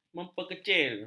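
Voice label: "memperkecil", Malay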